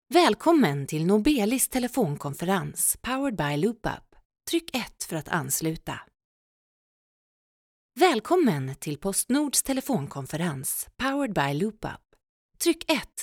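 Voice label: Swedish, female, interactive voice response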